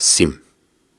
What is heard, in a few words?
Soft Syllables and Imaginary Words, Viking Vocal